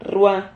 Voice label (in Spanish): "rrua", silabas